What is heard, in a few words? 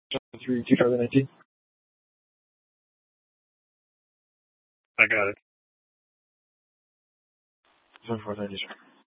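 police dispatch radio, scanner; TOPAZ Regional Wrlss Cooperative (TRWC) - Mesa Simulcast; Mesa PD Dptmnt; 1 Fiesta Dispatch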